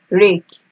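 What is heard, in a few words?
"rake", Nigerian Pidgin, female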